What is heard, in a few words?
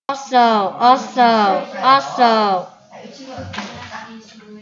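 "also", spoken word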